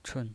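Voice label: "chun", Mandarin Chinese pinyin voice, neutral tone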